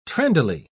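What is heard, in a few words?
"trendily", British English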